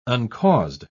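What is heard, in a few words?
"uncaused", British English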